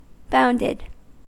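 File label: "bounded", US English; pronunciations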